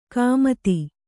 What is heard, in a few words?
"ಗುಮ್ಮತಿ", Kannada